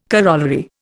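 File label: "corollary", Indian English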